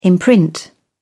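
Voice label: "imprint", British English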